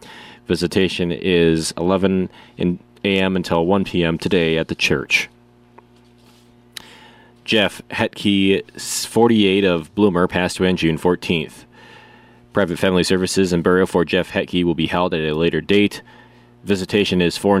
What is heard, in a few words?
Funeral Announcements